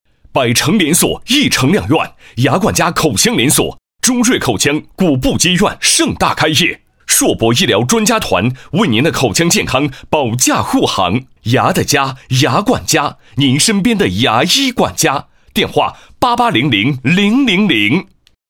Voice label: 男 广告配音; 牙管家; 百城连锁 一城两院

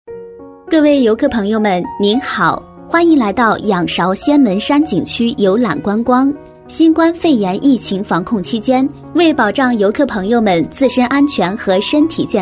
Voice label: 女 景区导语 语音广播提示; 旅游风光景区配音

